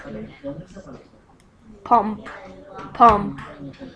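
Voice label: "pump", spoken word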